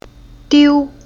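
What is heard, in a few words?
"Diu", Chinese syllable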